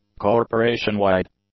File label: "corporation-wide", English; adjectives